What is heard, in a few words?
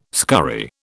"scurry", British